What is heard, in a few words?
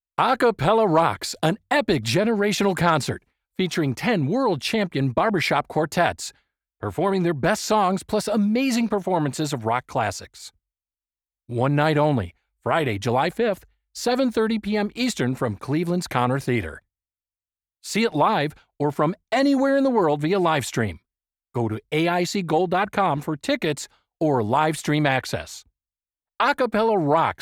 Radio Spot